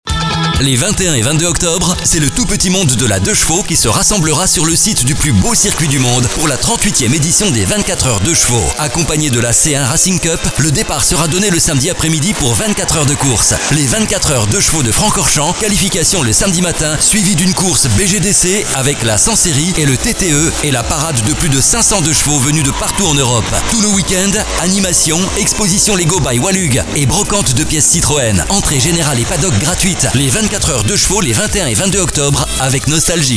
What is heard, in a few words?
Radio spot; Radio Nostalgie; 24H 2CV; 24h Francorchamps